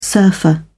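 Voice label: "surfer", British English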